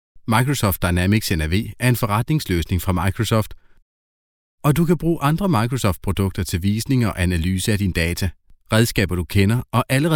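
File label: Danish elearning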